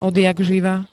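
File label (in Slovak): "odjakživa", Slovak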